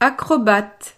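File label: "acrobate", French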